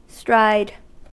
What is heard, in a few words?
"stride", us